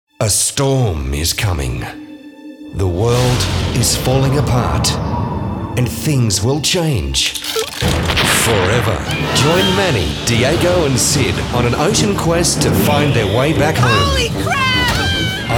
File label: Promos